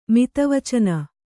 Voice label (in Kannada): "ಮಿತವಚನ", Kannada